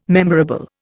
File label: "memorable", Indian